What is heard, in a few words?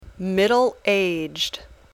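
"middle-aged", English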